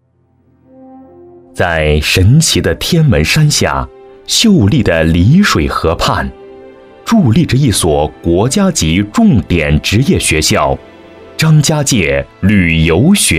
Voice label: 专题 人物, 男国; 职教名师

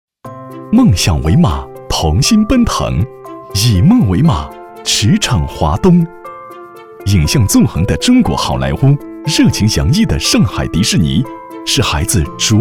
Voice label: 男国 宣传片 旅游 欢快; 华东少年团

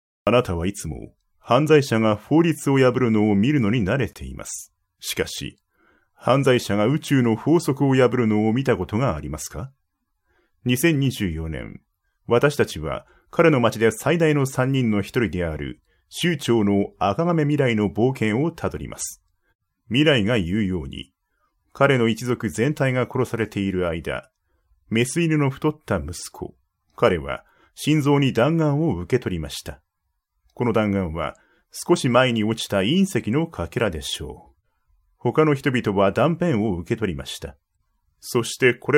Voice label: 日语 男, 外语 小语种

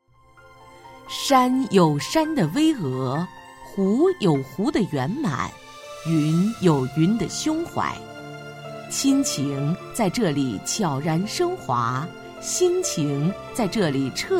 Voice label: 培训课件 旅游度假区, 女; 海南木棉湖旅游度假区

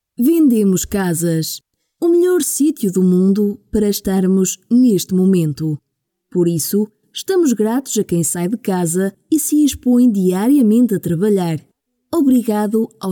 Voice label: 葡萄牙语女 外语 欧州

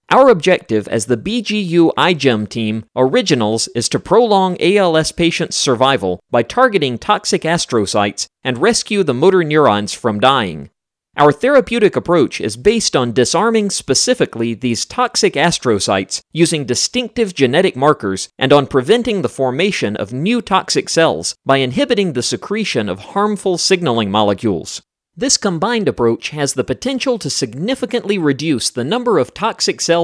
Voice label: 外籍男英 外语 美式英语 年轻

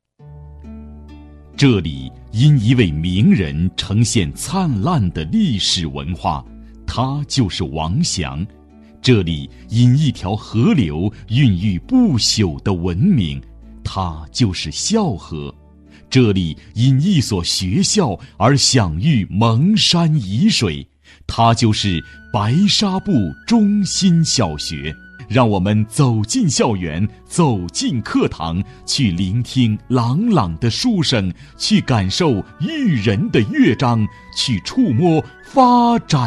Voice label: 男国 专题 学校 稳重; 白纱布中心小学

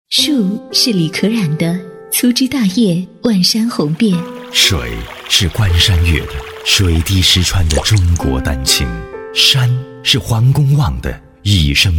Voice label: 广告 地产; 杰正山

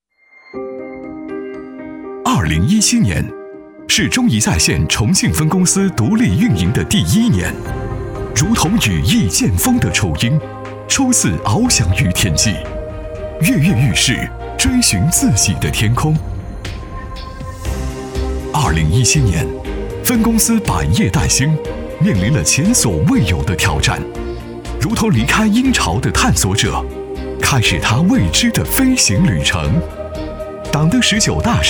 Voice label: 男国 宣传片 企业 激情; 中移在线

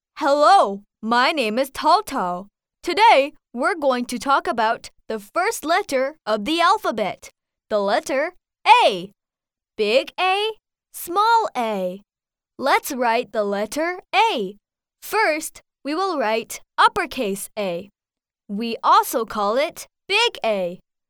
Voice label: 外籍女英 外语 美式英语 男童

